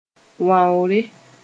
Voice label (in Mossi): "wãoore", moore